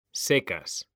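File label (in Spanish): "SECAS", Spanish; mekanta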